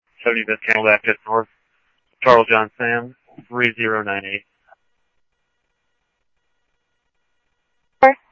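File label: police radio dispatch, Scottsdale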